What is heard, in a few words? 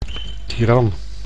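"tiran", Dutch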